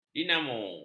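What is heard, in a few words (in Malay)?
"dinamo", Malay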